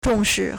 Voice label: "zhong4 shi4", Chinese